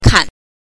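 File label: "kan", Mandarin Chinese pinyin, neutral tone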